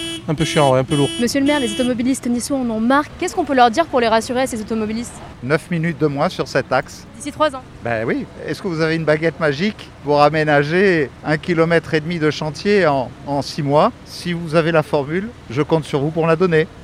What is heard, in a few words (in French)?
reportage bouchons nice ouest